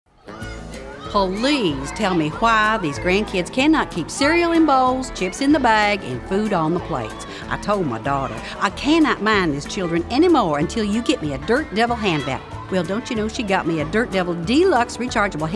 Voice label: female voice talent char

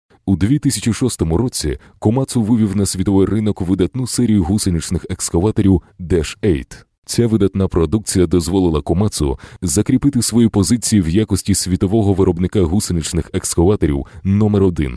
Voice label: Ukranian; foreign languages

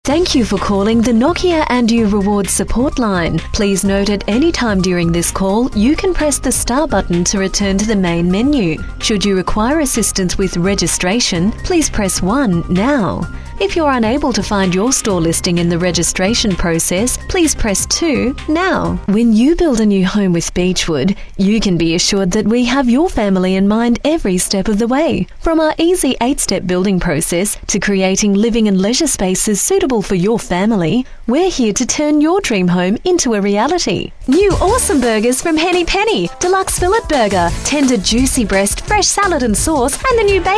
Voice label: Aussie; foreign languages

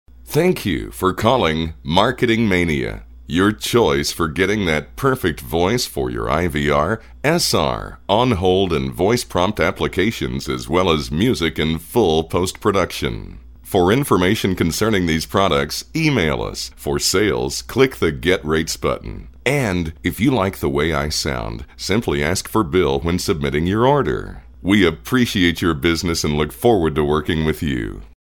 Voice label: Voice Sample, onhold

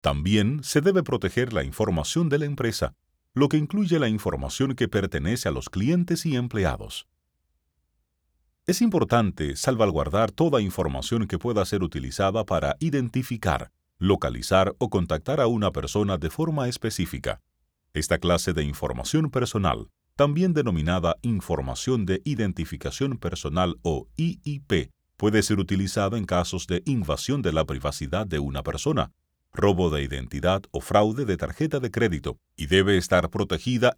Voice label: Latin American Spanish; foreign languages

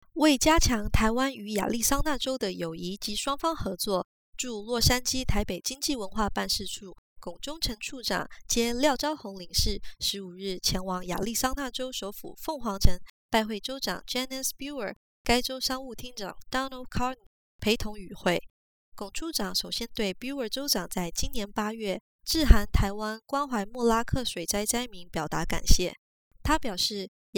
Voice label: Chinese Mandarin; foreign languages

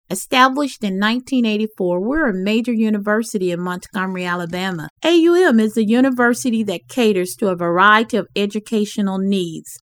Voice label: African American

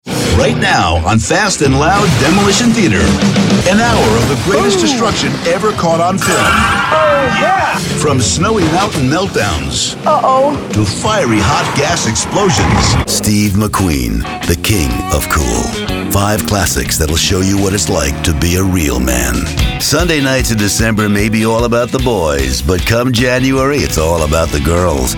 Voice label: Promo, male voice talent

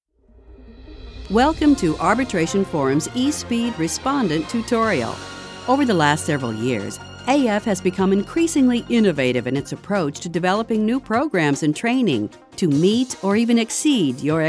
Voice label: Narr, female voice

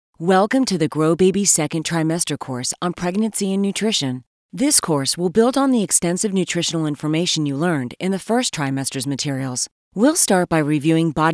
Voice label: female voice talent book

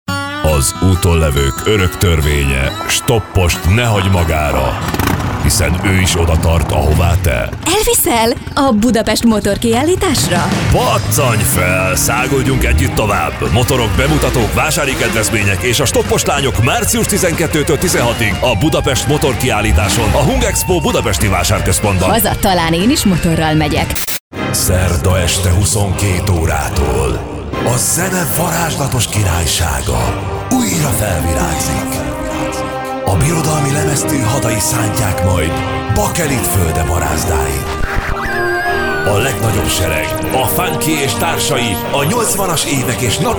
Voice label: Hungarian; foreign languages

